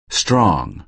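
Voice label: "strong", American English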